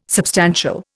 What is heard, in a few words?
"substantial", Indian